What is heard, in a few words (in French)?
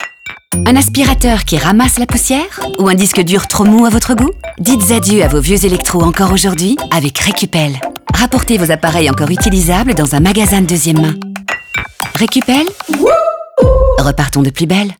magasin de deuxiemme main radio; recupel; fr